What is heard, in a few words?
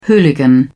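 "hooligan", British English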